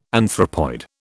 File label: "anthropoid", British